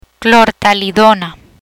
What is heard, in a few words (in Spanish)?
"Clortalidona", Spanish; hypertension therapy; learn spanish